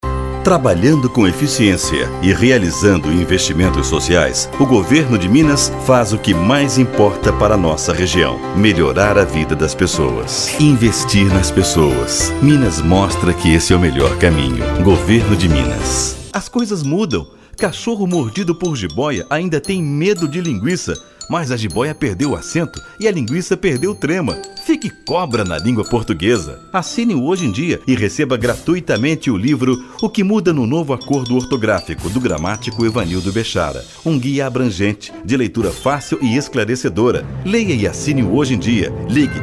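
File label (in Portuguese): Voz Madura, Masculino, Português - Brasileiro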